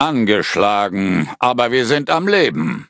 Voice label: defeat, de; Cookie Run

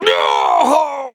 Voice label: hit, de

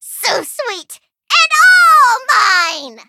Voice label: level up, en

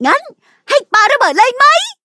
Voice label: level up, th; Cookie Run

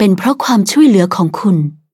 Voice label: level up, th; Cookie Run

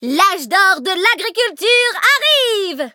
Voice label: levelup, fr; Cookie Run

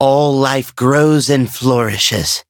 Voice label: levelup, en; Cookie Run

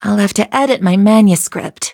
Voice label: defeat, en; Cookie Run

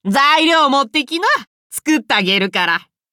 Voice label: level up, ja; cookie run

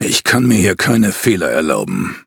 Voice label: loading, de; cookierun

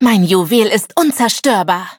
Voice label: level up, de

